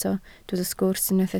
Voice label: "sgwrs", Welsh